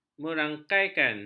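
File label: "merangkaikan", Malay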